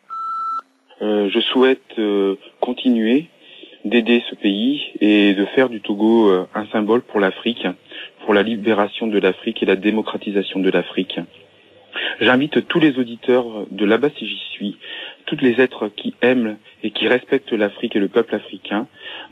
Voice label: repondeur; Soutien au Togo

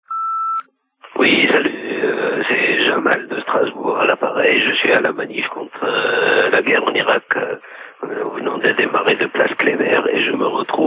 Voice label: Manif Stop War repondeur

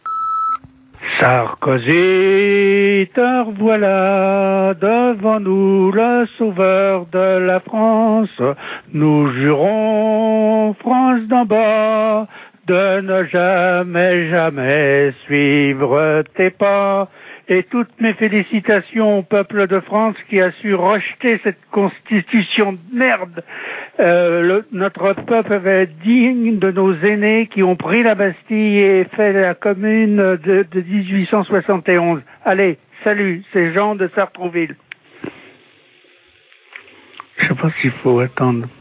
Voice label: répondeur; Nicolas Sarkosy

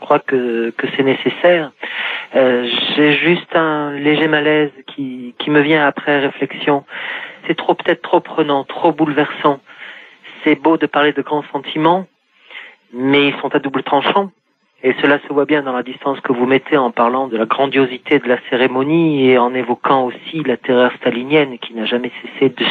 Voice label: Stalingrad repondeur

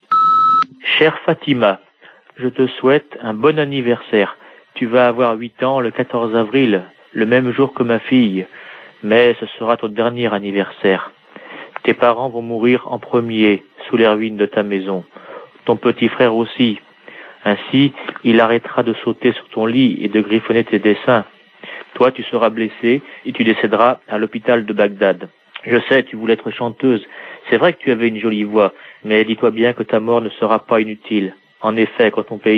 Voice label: Irak repondeur

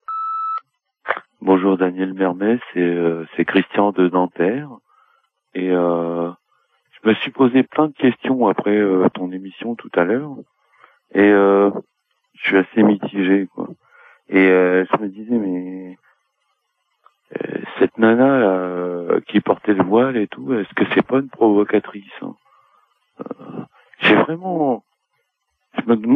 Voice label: répondeur

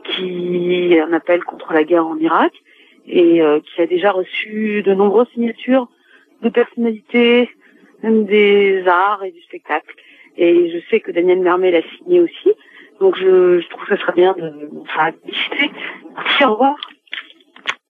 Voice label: Pas en notre nom repondeur

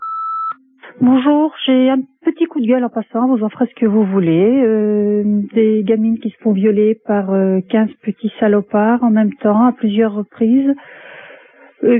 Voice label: repondeur; Viol collectif

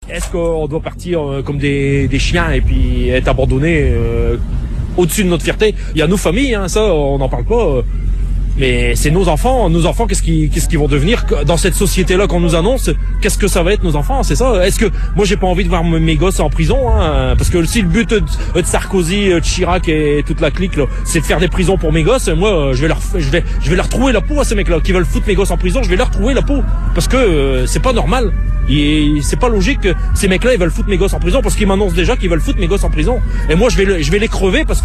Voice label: Metaleurop employe en colere

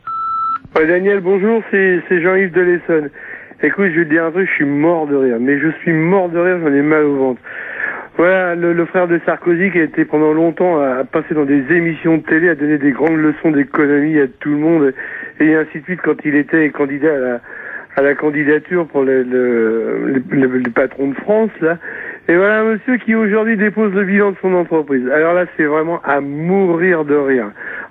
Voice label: repondeur; Faillite Guillaume Sarkosy

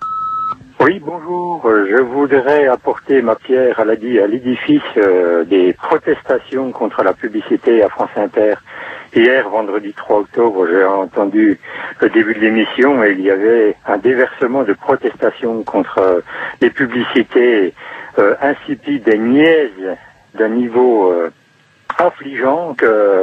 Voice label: Pub répondeur; France Inter

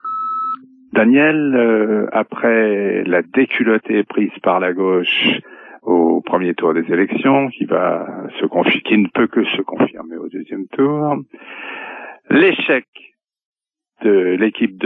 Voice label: Coupe du Monde repondeur

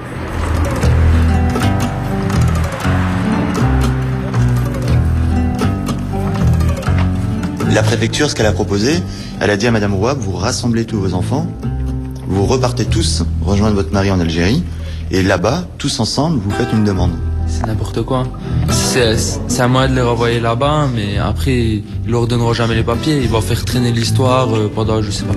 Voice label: micro trottoir; Famille expulsable

